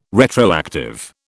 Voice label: "retroactive", British